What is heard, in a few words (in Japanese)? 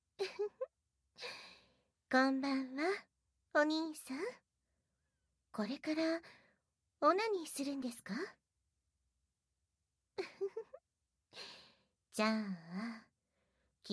永遠絶頂オナサポ あまあま優しいママお姉さん; 永遠絶頂オナサポ ～あまあま優しいママお姉さんはあなたを絶対バカにしない～; 女尊男卑戦線; 连续高潮 言语侵犯 洗脑 ASMR 自慰帮手